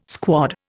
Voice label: "squad", Indian

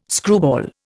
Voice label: "screwball", Indian

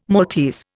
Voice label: "Maltese", Indian

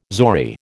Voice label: "zori", American

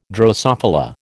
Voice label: "drosophila", American

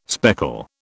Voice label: "speckle", British

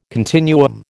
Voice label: "continuum", American

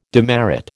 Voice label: "demerit", American

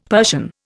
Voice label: "Persian", Indian; Dictionary

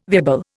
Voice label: "viable", Indian

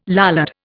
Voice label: "Lollard", Indian

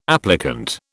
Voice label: "applicant", British

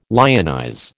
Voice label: "lionize", American

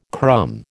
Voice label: "crumb", American

